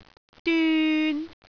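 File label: "dyun3", Cantonese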